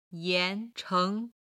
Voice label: "yan2 cheng2", Chinese

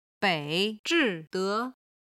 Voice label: "bei3 zhi4 de2", Chinese

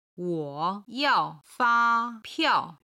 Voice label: "wo3yao4fa1piao4", Chinese; 中国のタクシー

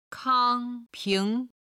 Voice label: "kang1 ping2", Chinese

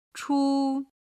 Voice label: "chu1", Chinese

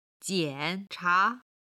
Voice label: "jian3cha2", Chinese

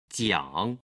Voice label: "jiang", Chinese

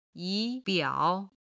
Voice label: "yi2 biao3", chinese

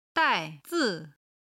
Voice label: "dai4zi5", chinese

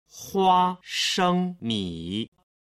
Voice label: "huashengmi", Chinese

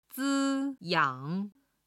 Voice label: "zi1yang3", chinese

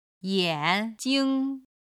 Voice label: "yanjing", Chinese

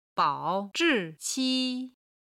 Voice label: "bao3zhi4qi1", chinese

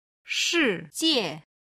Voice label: "shi4jie4", Chinese

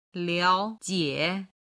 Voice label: "liao3jie3", chinese; HSK3級

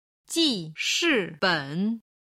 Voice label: "jishiben", Chinese

